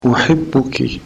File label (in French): arabe litteraire